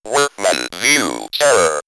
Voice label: Robot, robot vox; Workmen View Terror; X-Static Goldmine